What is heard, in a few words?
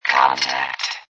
Robot Voice "Contact"; Robot Type 1 voice; Robot Sound Effects; Lynne Music